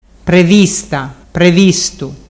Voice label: previstavistu, dialettu

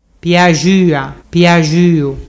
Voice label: piaxuapiaxuu, dialettu

